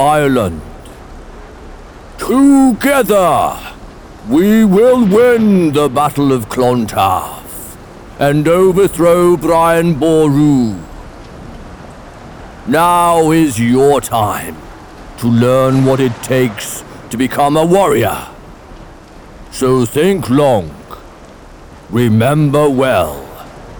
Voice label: Viking Ride Announcer

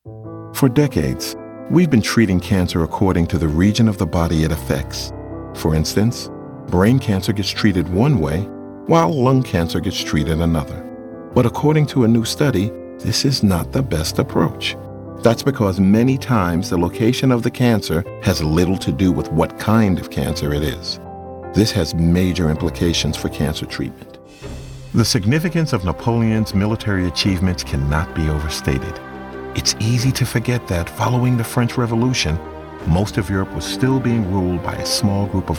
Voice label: Naration

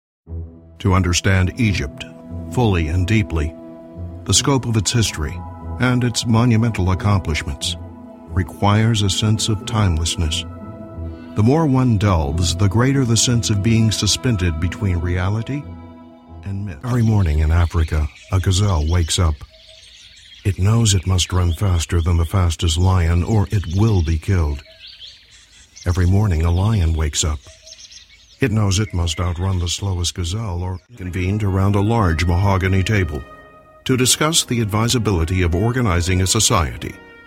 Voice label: Narrate Doc

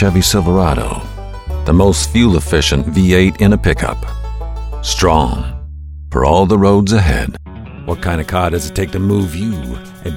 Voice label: Commercial Car-Truck VoiceOver

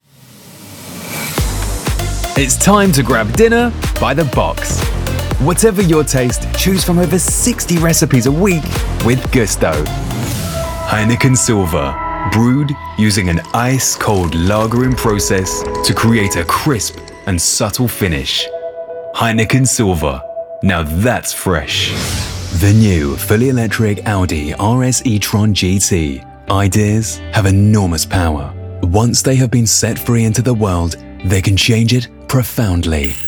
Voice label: commercial natural voice

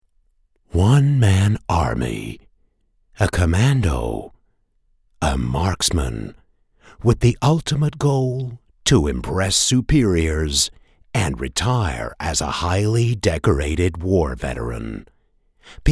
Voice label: video Game Trailer voice; Sniper

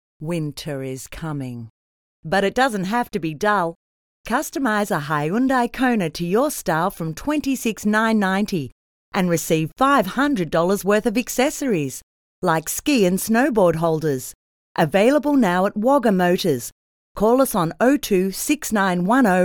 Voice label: Accessory Advert; Hyundai

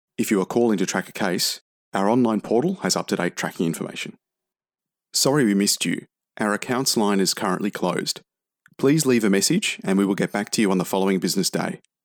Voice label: IVR

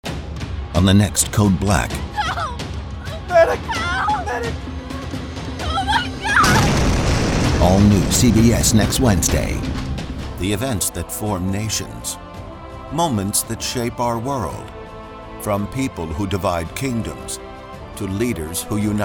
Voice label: PROMOS

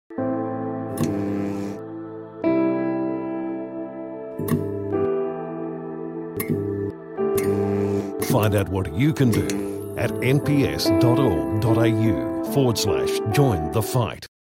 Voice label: medical tvc

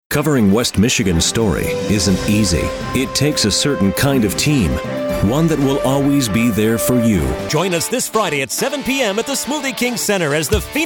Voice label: Promo; Voice Realm

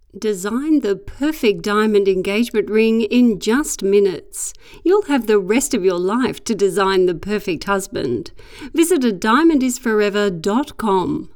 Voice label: Engaging

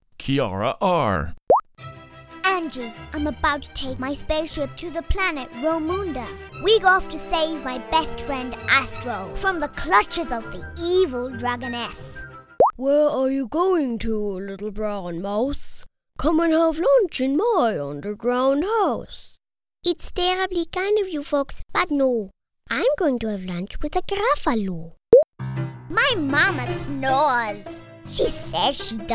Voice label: Varied Voice Characters

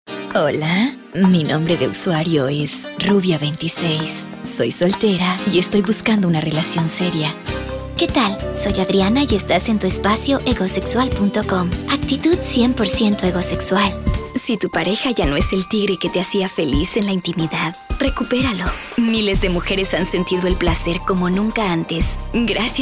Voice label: Sexy Spanish

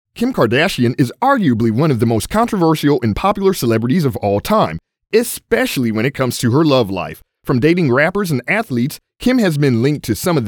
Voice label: studio sample

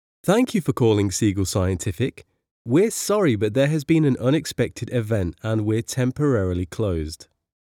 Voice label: phone

